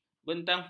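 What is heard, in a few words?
"bentangur", Malay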